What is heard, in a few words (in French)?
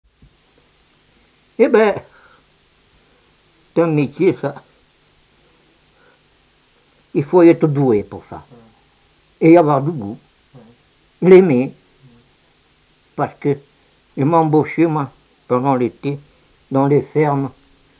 Récit Le métier de violoneux